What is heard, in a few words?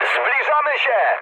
near waypoint mood high, speech pol; War Thunder